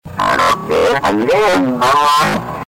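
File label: "cara", Italian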